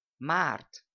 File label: "maart" "March"